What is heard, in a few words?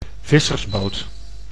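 "vissersboot", Dutch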